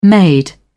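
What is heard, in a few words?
"made", British English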